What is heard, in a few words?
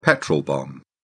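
"petrol bomb", English, British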